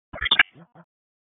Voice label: EMS ALERT; wake